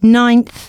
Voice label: announcements female

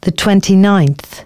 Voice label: announcements female

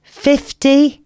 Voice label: announcements female

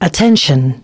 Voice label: female, announcements

